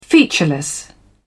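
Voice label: "featureless", British English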